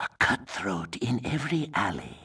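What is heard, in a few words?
female enemy; NWNDS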